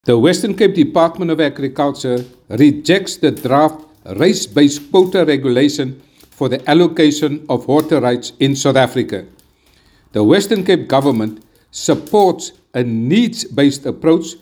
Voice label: Voice Note